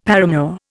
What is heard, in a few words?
"paramour", Indian; Dictionary